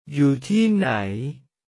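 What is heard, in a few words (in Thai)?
"อยู่ที่ไหน", Thai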